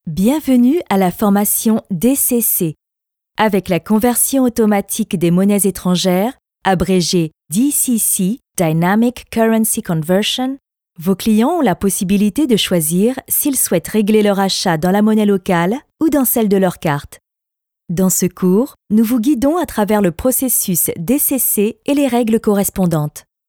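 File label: French e-learning; fransk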